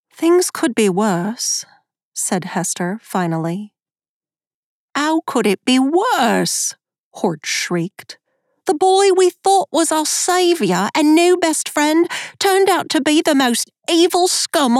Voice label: Fiction YA Dialects British RP Cockney French Texan Long Island Irish